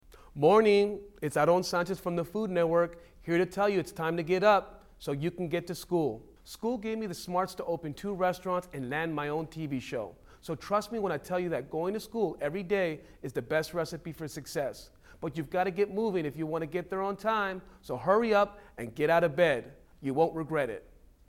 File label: Wake Up, English